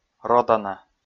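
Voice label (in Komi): "родана", Komi, male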